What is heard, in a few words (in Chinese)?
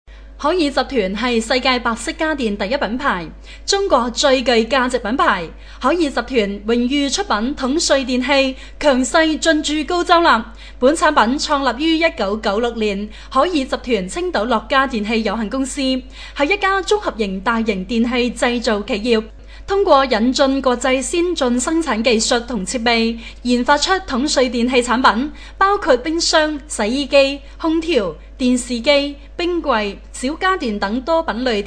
粤语 女; 海尔集团